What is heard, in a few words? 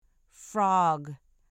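"frog", English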